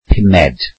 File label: welsh fifth